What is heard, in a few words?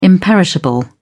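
"imperishable", British English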